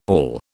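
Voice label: "all", British English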